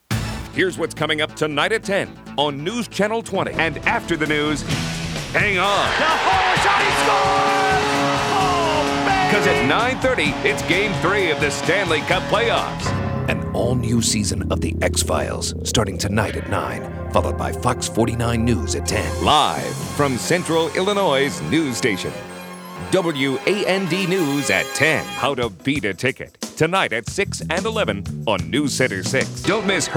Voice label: promo, male voice talent

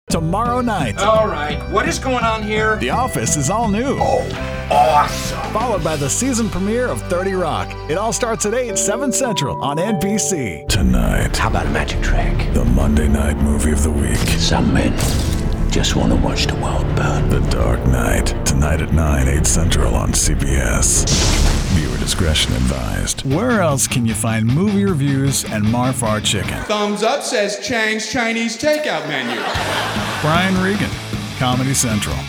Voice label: Promo, male voice talent

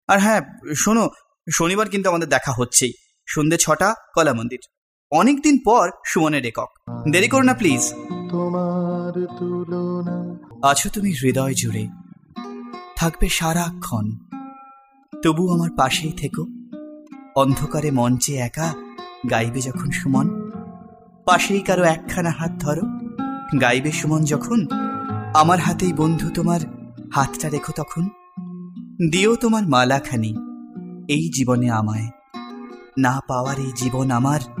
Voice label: Bengali; foreign languages

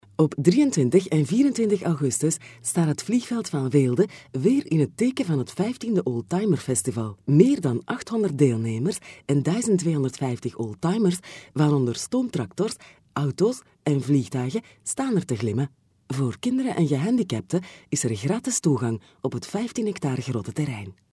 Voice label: flemish

